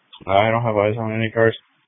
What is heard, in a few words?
Police Tactical Encrypted